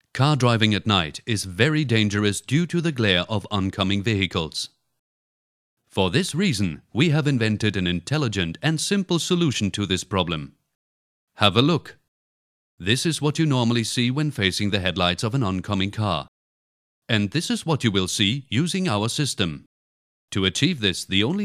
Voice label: voiceover crowd funding campagne glasses; dengraf glasses; dengraf ltd.